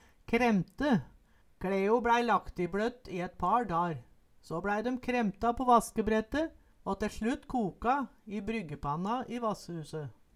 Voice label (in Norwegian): kremte, Numedalsmål